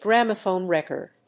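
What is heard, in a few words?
"gramophone record", English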